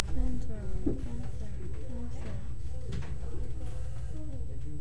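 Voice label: "pencil", spoken word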